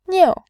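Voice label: "niu", Mandarin, tone 4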